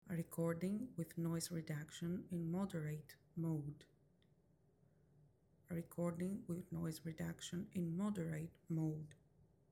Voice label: Noise Reduction Moderate Mode, wireless lavalier microphone; maono ai wave t5